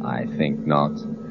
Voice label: "i think not", male

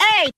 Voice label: "ay", voice

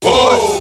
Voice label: "woah", group of men; ooh ahh

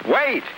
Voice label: "wait", male; Voice

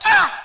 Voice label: "uh", male

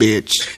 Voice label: "bitch", expletives

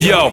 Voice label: "yo", voice

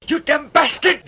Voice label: you damn bastard, old man; Voice

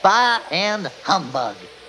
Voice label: "bah" and "humbug"; Voice; xmas

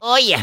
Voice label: "oh yeah" cartoon; ooh ahh

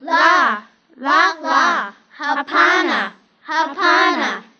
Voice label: la lala hapana hapana, Voice gibbrish

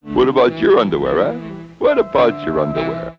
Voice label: what about your underwear, announcer

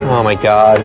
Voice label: "oh my god", male

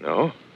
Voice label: "no" questioning; Voice; expletives

